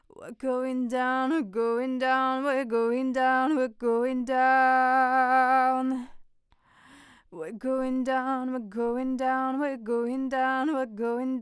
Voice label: soft female voice, test neumann tlm 103; test neumann tlm 103 vs mxl 990